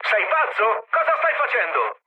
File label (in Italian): player killed ally, mood med, speech it; War Thunder